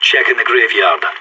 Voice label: checking graveyard, radio; SAS